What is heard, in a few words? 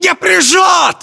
inform suppressed generic, battlechatter ru; localized english